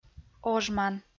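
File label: "ожман" Komi-Zyrian, female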